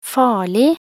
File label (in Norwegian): "farlig", nb NO